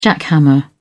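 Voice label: "jackhammer", British English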